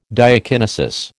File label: "diakinesis", American